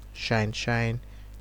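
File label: "shineshine", Nigerian Pidgin, male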